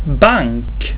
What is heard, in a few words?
"bank", swedish